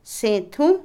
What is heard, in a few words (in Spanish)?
avispa, Otomí, mujer; Animales; INALI